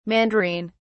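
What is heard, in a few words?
"mandarine", British English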